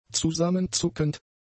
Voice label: "zusammenzuckend", German; adjectives